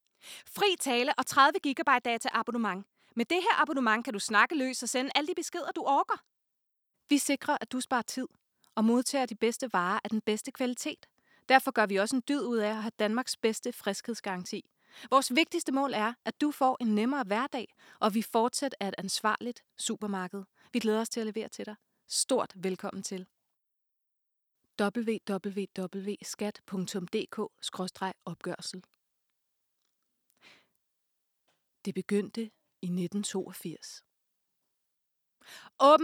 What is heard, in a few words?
Danish Commercial Speak